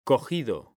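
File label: "COGIDO", Spanish, male; mekanta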